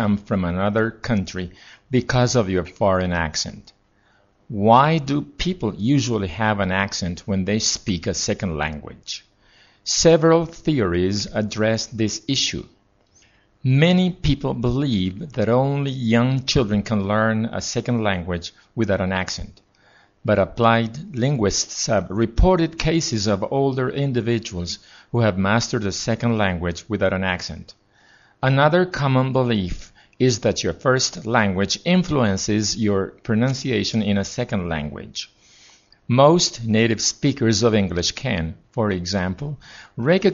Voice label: Diagnostic passage